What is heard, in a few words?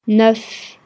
"neuf", French